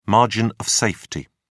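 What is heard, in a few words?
"margin of safety", English, British English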